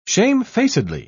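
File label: "shamefacedly", British English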